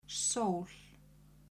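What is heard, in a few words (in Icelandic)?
"sól", Icelandic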